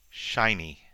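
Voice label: "shiny", US English; pronunciations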